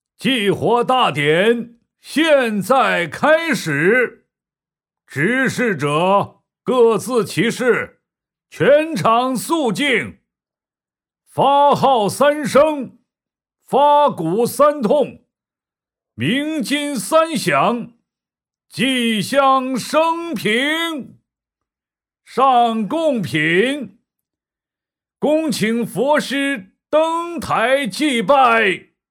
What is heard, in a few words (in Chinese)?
男, 角色配音, 50-60岁高僧; 素人自然; 男60-角色配音-50-60岁高僧